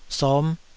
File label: "som5", taiwanese Syllables